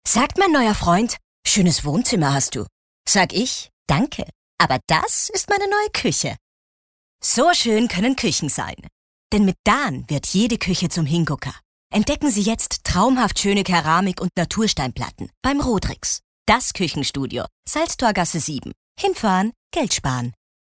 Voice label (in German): Werbung; Rodrix